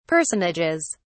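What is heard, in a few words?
"personages", British English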